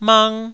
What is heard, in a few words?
"mang1", Taiwanese syllable